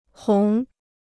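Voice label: "红", Chinese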